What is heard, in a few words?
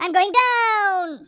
"kamikaze", speech; Biggles